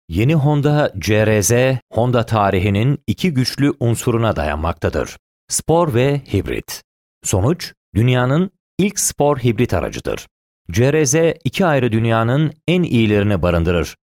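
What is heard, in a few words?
Turkish narration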